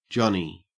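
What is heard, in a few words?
"johnny", Australian English; pronunciations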